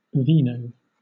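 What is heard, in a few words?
"vino", British English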